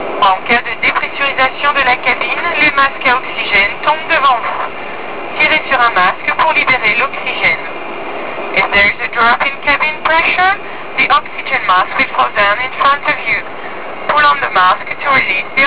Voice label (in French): masque a oxygene